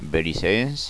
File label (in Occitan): "belissens", gasconha